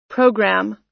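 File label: "program", US English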